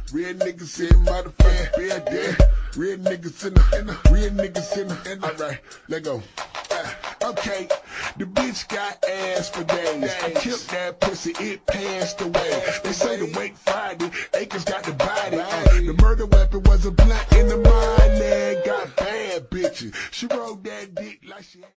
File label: freestyle slowed down